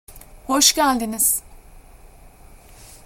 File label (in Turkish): "hoşgeldiniz", Turkish